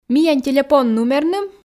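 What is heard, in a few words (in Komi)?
миян телепон нумерным, Komi, female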